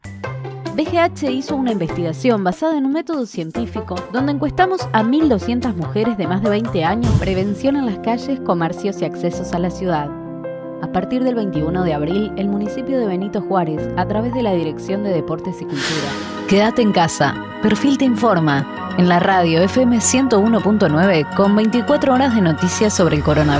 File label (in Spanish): institucionales